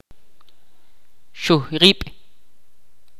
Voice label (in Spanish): "cuchillo", Ch'orti'